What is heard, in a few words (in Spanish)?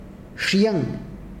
cabello, mam